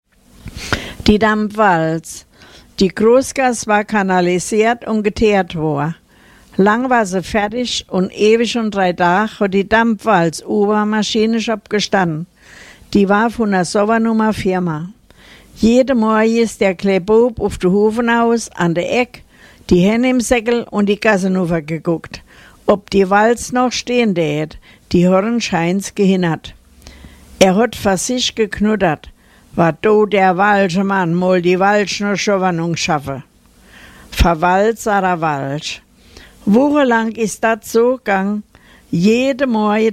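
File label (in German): die dambwalz, O-Ton Hunsrück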